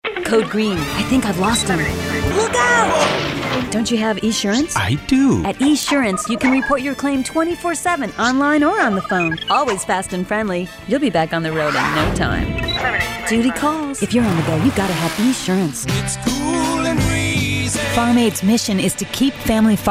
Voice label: Commercial